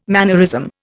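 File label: "mannerism", Indian English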